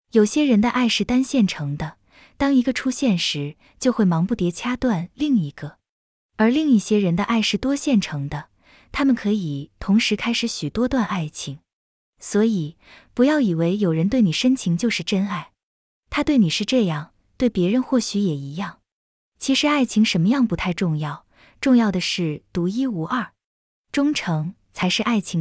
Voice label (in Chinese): 抒情